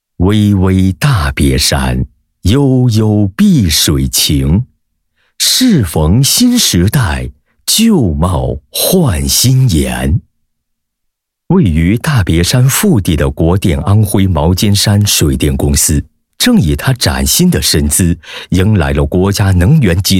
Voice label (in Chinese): 男 大气浑厚; 大别山